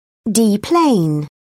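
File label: "deplane", English, British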